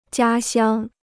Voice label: "家乡", Chinese

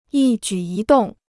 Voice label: "一举一动", Chinese

